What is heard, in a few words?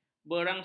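"berangsang", Malay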